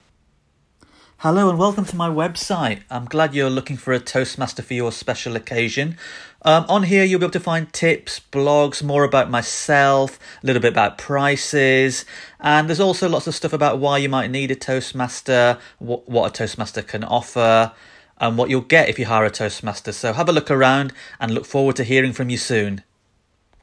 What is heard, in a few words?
Welcome Message, Toastmaster for Asian Weddings